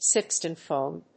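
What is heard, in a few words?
"pistonphone", English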